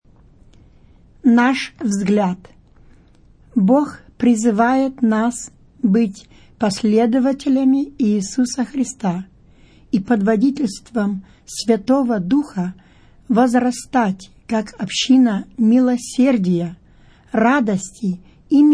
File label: Russian; Purpose Spoken Versions